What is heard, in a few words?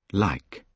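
"like", British English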